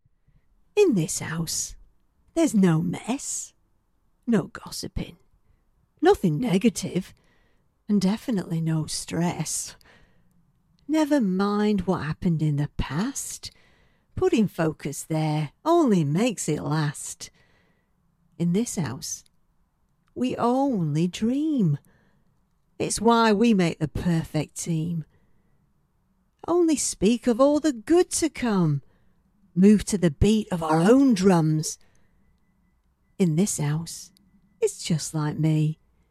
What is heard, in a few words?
Northern Accent